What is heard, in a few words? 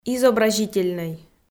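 "изобразительнӧй", Komi, female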